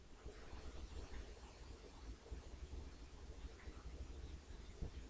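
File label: "book", spoken word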